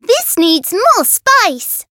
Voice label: Voice variable chant; loveli

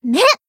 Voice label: Voice attack jp

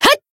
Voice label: Voice attack jp; kid

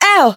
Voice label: Voice damaged; hoozuki

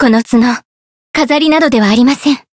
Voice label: win jp; tsukiha

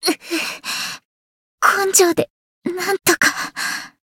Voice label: win barely, jp; violet

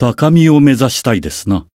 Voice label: growth, jp

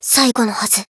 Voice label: finish, jp

